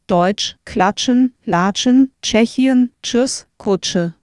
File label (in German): Deutsch klatschen; spółgłoski; Wymowa niemiecka